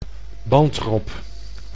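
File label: "bandrob", Dutch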